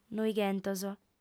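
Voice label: "noighentos" Sardinian, female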